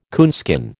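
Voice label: "coonskin", American English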